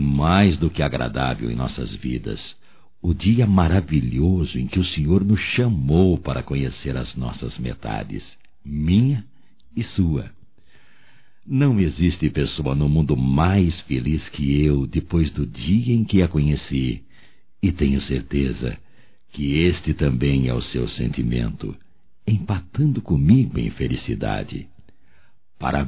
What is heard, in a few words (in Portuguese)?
Aniversário Casamento Masculino; Aniversarios; Telemensagens 2017